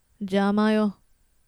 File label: attack, rpg voice